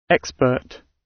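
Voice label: "expert", British English; Space, the planets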